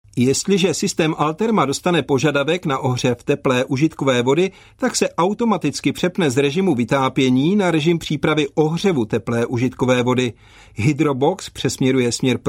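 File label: Czech, Corporate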